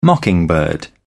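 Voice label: "mockingbird", British English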